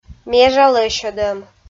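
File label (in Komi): "межа лӧсьӧдӧм", Komi, female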